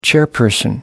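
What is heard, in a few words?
"chairperson", British English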